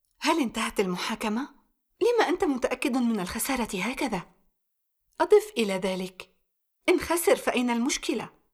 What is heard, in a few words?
Drama